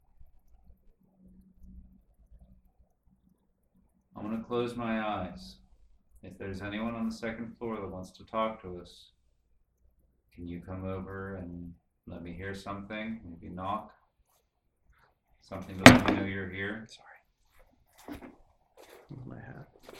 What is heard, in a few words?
Lincoln Room EVP; judson house investigation